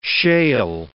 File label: "shale", words